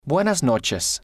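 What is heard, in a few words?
"buenas Noches", spanish, male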